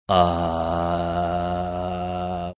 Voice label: drawn out "uhh"